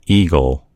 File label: "eagle", en US; gcompris